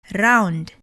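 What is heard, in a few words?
"round", English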